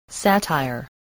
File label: "satire", English spelling